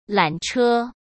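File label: "缆车", Mandarin Chinese; hsk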